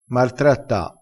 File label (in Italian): "martratta", crespinese